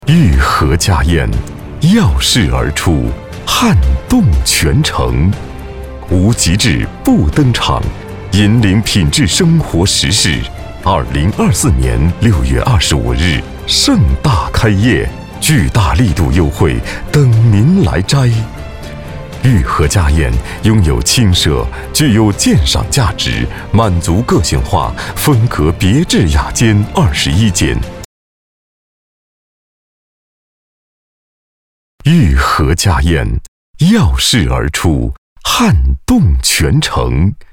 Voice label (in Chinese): 家宴大气激情